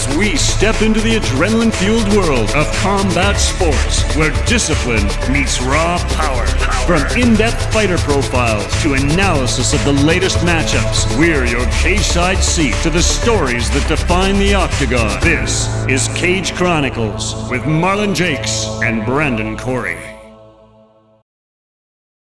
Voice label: Podcast Montage